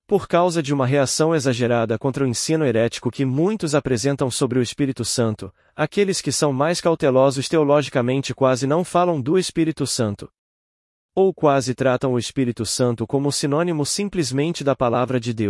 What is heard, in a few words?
portuguese pt-BR Neural; NicolauNeural